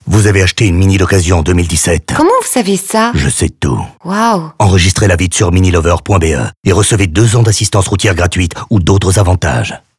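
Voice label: radio fr vo homme; minilover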